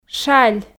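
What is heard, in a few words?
"шаль", Komi, female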